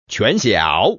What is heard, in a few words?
common man